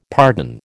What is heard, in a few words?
"pardon", American